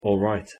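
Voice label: "alright", English pronunciation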